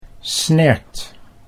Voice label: "snert", Dutch

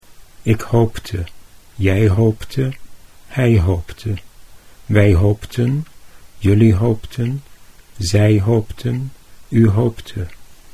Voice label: "ik hoopte", Dutch

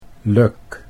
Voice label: "lijk", Dutch

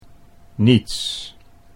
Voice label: "niets", Dutch

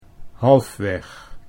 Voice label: "Halfweg", Dutch

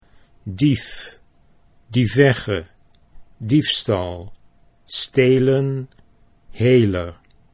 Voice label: dief dievegge, Dutch

